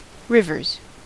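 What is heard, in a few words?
"rivers", US English; pronunciations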